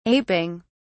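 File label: "aping", British English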